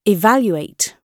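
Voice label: "evaluate", British English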